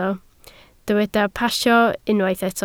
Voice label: "pasio", Welsh